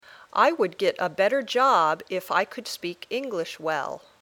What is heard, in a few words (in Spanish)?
Dictado